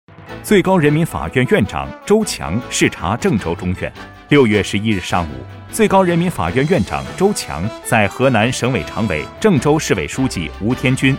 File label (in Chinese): 男 新闻 播报, 国语男声配音 大气浑厚; 人民法院